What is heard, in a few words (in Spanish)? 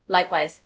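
Voice Acting, es, female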